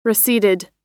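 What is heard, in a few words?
"receded", US English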